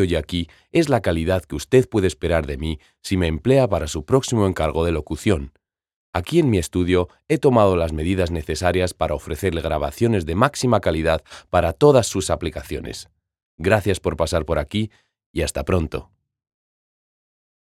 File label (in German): studio demo deu esp